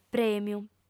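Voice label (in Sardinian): "prèmiu", female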